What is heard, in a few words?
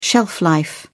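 "shelf life", British English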